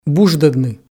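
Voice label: "буждӧдны", Komi, male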